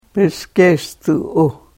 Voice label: karuk